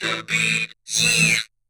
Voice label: ELECTRO MALE 140 A, Vocals